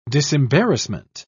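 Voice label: "disembarrassment", British English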